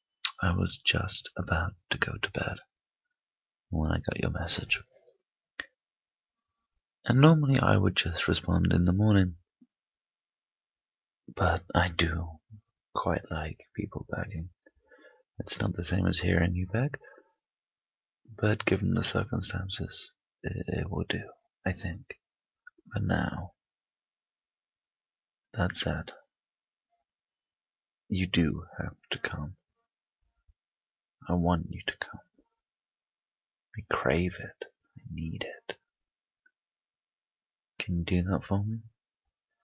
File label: Voice mail - Pillow Talk